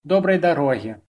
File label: bon voyage, belarusian